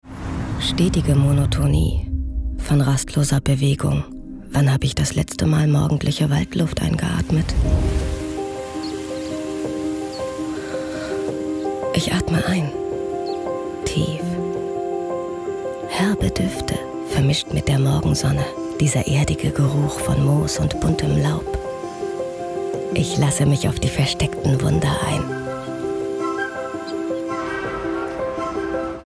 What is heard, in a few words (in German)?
Imagefilm; Ganz meine Natur